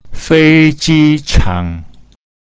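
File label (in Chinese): "fei ji chang", Mandarin Chinese pinyin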